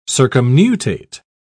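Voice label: "circumnutate", British English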